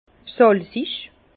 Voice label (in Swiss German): "salzig", Bas Rhin